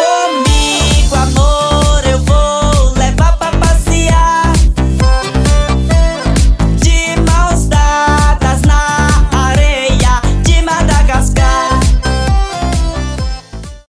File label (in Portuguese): chamada festa voz